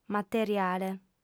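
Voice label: "materiale" Sardinian, female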